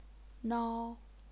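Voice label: "nao", first tone, chinese pinyin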